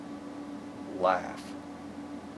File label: vowel laugh; IPA